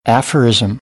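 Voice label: "aphorism", American English; AmericanBritish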